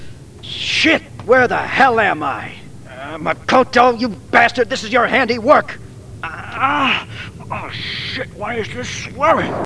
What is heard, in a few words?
Cursing